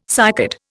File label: "cycad", Indian